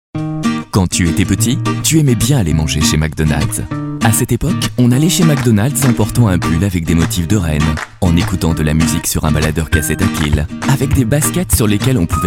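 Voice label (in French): chaleureux amical, voix off publicite, homme; MC DONALD'S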